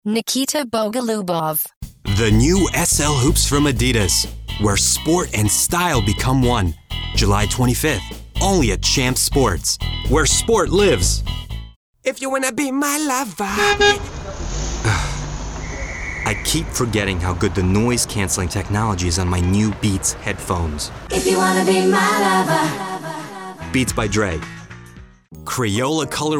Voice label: Commercial Voice Over; Commercial Voice Over Reel